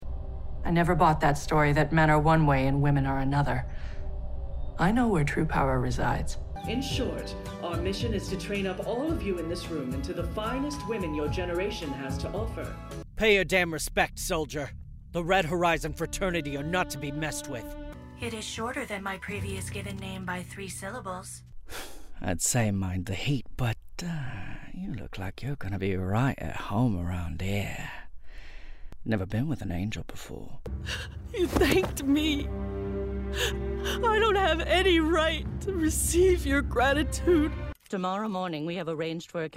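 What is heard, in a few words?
animation, Voice Over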